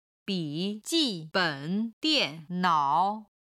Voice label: "bi3 ji4 ben3 dian4 nao3", chinese